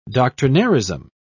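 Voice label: "doctrinairism", British English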